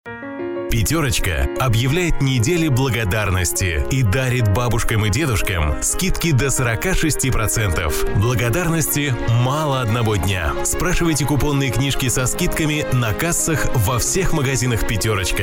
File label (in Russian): reklama skidki